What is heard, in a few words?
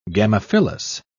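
"gamophyllous", English, British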